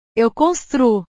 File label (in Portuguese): "eu construo", portugues; portugues/verbos